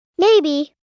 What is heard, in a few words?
"Maybe", Response, 1 girl, 5 yrs.; Talking; Voice Box